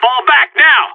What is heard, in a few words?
radio bot fall back; Source Model Packs; Counter Strike Model Pack; CS2; seal